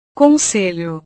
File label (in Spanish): "conselho", Portugués; consejo